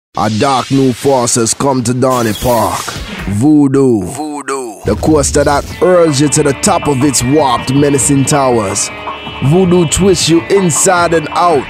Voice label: jamaican